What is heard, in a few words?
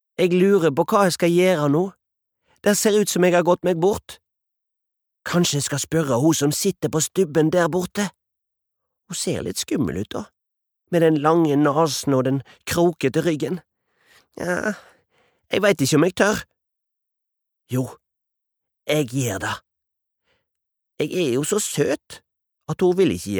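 Norwegian dub character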